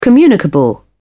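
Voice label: "communicable", British English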